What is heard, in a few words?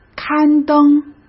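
"刊登", Mandarin Chinese; chinese flashcards; hsk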